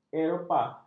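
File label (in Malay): "eropah", Malay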